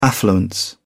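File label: "affluence", English, uk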